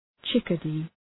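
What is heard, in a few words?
"chickadee", English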